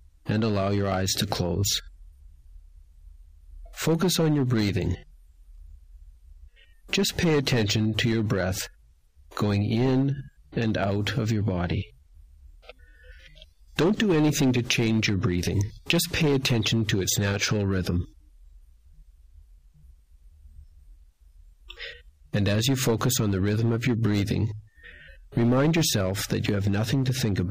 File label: relaxation tension